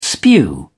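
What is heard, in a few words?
"spew", British English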